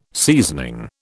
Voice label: "seasoning", British

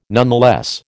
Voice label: "nonetheless", American

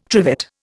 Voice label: "trivet", Indian

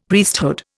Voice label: "Priesthood", Indian; Dictionary

